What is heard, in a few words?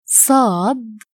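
"saad", Arabic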